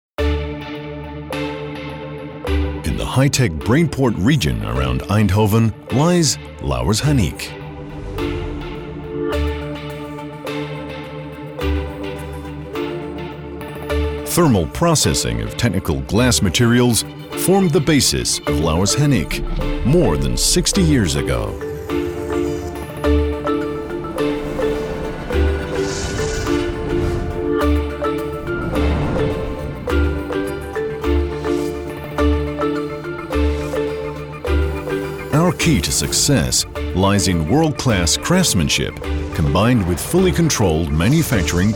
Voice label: US corporate; Louwers Hanique